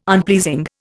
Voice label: "unpleasing", Indian

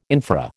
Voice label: "infra", American

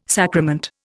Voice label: "sacrament", Indian

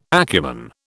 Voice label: "acumen", British

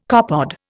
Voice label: "copepod", Indian

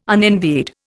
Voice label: "unenvied", Indian

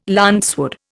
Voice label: "lancewood", Indian

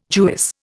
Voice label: "Jewess", Indian

